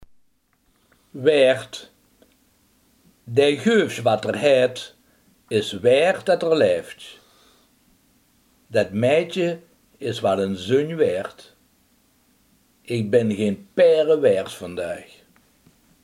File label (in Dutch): "waard", limburgse